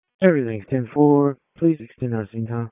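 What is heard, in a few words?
EMS Dispatch; wake; p25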